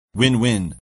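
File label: "win-win", English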